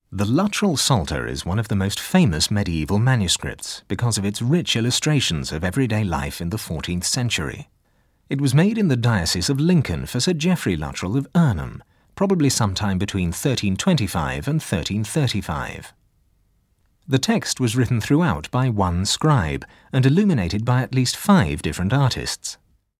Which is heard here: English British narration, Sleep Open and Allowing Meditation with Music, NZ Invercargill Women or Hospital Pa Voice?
English British narration